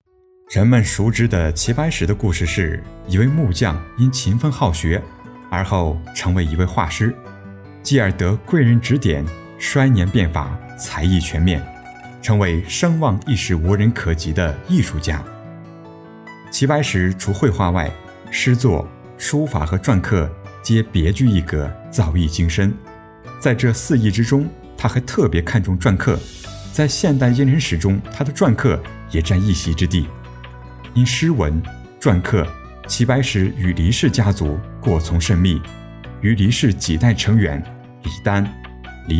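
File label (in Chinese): 男【专题】; 人物专题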